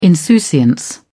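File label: "insouciance", British English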